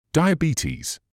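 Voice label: "diabetes", British English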